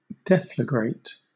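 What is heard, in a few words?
"deflagrate", British English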